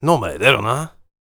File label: warrior conversation emotion question